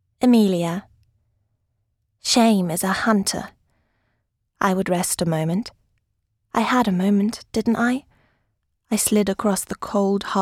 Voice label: female English with undetectable accent